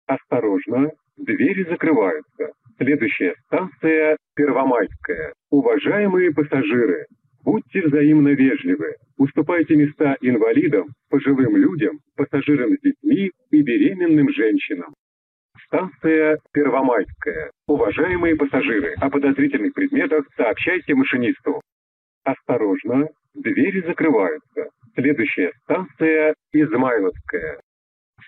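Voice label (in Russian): диктор в московском метро арбатско-покровская линия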